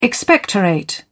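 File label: "expectorate", British English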